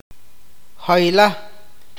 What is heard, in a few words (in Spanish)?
"lejos", awakateko